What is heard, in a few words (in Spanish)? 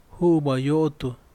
joven, Yaqui; Vocabulary